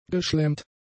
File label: "geschlämmt", German; adjectives